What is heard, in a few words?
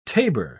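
"tabour", British English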